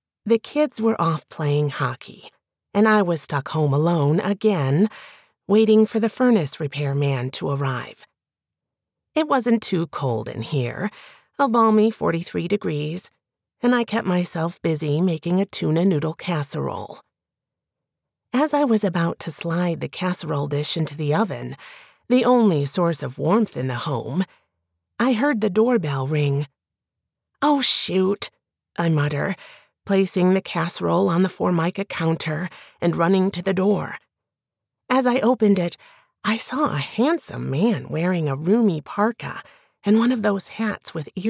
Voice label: ACCENT US MIDWEST dialogue comedic